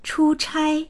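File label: "chu1chai1", Mandarin Chinese; 出差; 出張する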